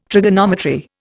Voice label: "trigonometry", Indian

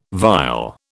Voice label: "vile", British

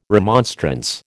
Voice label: "remonstrance", American

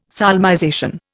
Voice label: "solmization", Indian

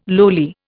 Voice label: "lowly", Indian

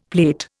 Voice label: "plate", Indian; Dictionary

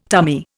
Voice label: "tummy", Indian

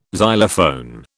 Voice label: "xylophone", British

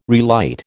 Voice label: "relight", American

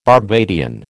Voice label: "Barbadian", American

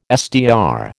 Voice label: "SDR", American

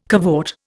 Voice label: "cavort", Indian

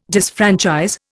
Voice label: "disfranchise", Indian

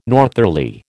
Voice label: "northerly", American

